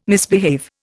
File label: "misbehave", Indian